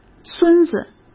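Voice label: "孙子", Mandarin Chinese; chinese flashcards; hsk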